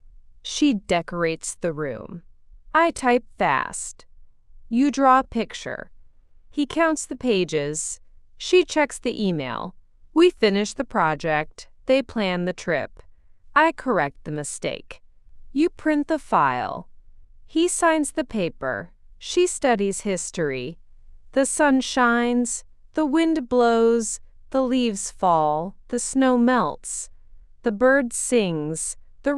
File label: AI speech